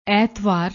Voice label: "aetwar", Punjabi

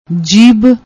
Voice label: "jeebh", Punjabi

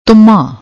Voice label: "tooma", Punjabi; ਤੁੰਮਾ

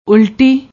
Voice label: "ulti", Punjabi; ਉਲਟੀ

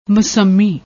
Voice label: "musammi", Punjabi

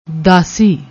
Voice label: "dassi", Punjabi; ਦਾਸੀ

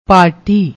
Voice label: "parti", Punjabi

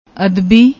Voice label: "adbi", Punjabi; ਅਦਬੀ

